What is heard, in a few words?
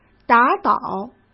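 "打倒", Mandarin Chinese; chinese flashcards; hsk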